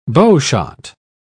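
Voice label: "bowshot", English, British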